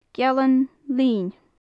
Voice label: "gealan-lin", gaelic; Faclan Nàdair

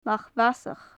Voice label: "lach mhasach", gaelic; Faclan Nàdair

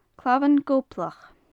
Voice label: "clamhan gobhlach", gaelic; Faclan Nàdair

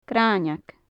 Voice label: "graineag", gaelic; Faclan Nàdair

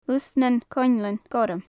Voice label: "lus nan coinnlean gorma", gaelic; hyacinth; lusan nan coinnlean gorma; Faclan Nàdair

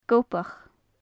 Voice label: "gobach", gaelic; Faclan Nàdair

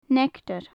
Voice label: "neactar", Gaelic; Faclan Nàdair

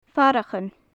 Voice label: "farachan", gaelic; Faclan Nàdair

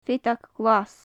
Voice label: "feadag ghlas", gaelic; Faclan Nàdair

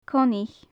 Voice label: "coinneach", gaelic; Faclan Nàdair

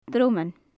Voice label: "droman", Gaelic; Faclan Nàdair

